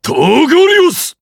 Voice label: Vox Skill jp; Bernheim; kingsraid